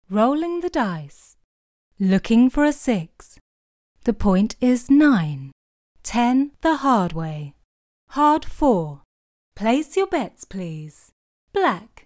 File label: character animation game voiceover; Craps